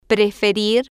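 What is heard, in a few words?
"preferir", Spanish, female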